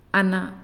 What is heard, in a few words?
"Say", Naasioi